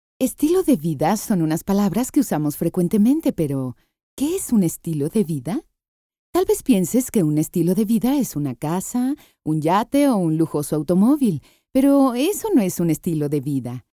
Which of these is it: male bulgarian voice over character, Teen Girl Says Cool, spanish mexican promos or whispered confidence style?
spanish mexican promos